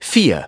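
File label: "four", English; iOS 4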